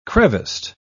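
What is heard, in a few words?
"creviced", British English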